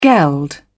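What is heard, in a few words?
"geld", British English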